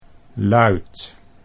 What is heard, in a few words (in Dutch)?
"luid", Dutch